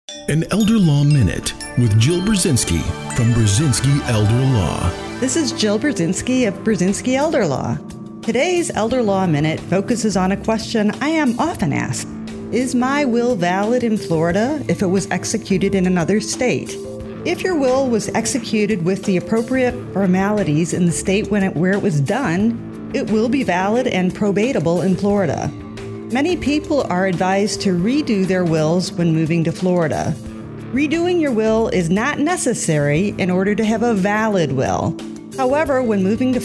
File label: PSA spot